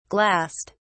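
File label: "glassed", British English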